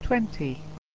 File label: "Twenty", English